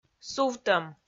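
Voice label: "сувтӧм", Komi-Zyrian, female; audio dict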